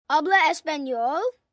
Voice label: Spanish Do U Speak, Questions, 1 boy, 10 yrs., Spanish